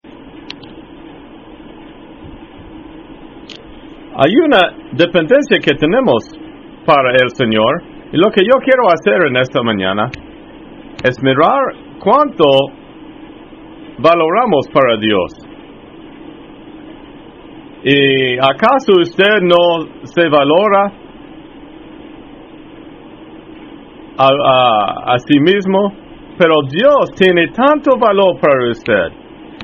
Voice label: Spanish; Blessed be God